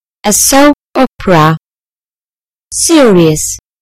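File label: "soap opera", British English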